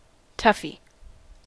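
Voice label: "toughie", US English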